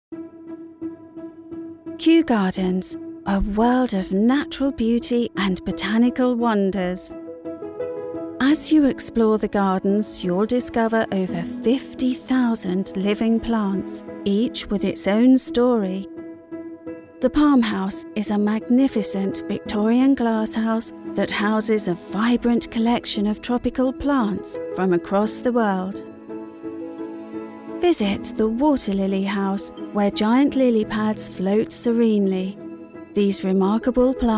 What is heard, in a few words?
Audio Guide; Kew Gardens